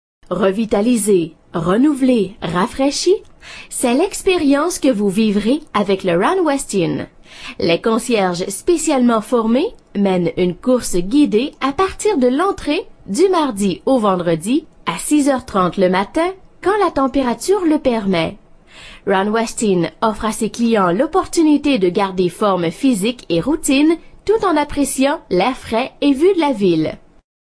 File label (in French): french